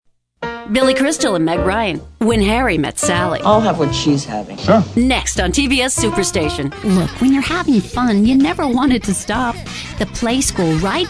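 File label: commercial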